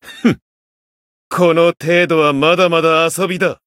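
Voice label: growth, Japanese; majuou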